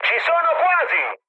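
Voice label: Italian near waypoint mood high, speech; War Thunder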